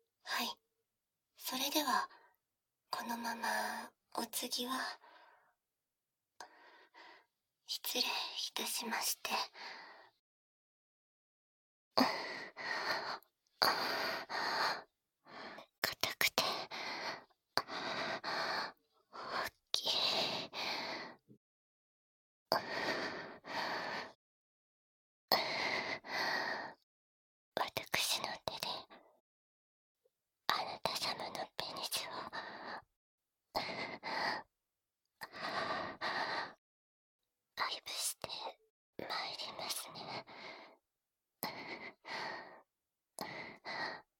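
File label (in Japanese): 囁き手コキ（バイノーラルマイク収録 効果音なし）; 潮吹きお掃除フェラ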